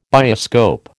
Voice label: "bioscope", American

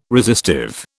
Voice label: "resistive", British

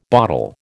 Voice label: "bottle", American

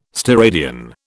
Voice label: "steradian", British

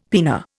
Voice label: "pinna", Indian; Dictionary

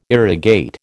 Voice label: "irrigate", American

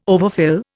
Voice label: "overfill", Indian

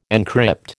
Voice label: "encrypt", American

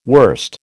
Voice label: "wurst", American

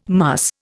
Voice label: "muss", Indian

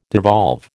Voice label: "devolve", American

